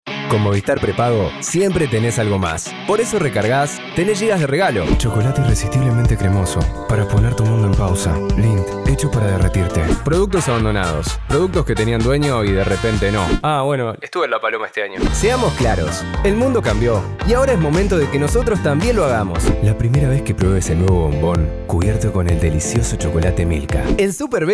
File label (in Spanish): Comercial